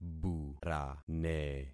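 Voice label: "burane", concatenated syllables; Affixing experiment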